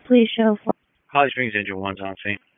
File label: PS TAC 15, P25 recordings; wake